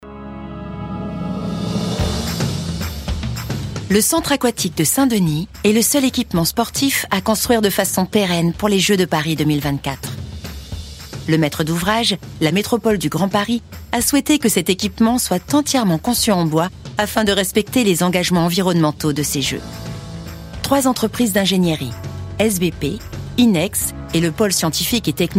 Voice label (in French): Film Web narrative posee; Bouygues Bat JO de Paris